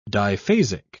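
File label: "diphasic", British English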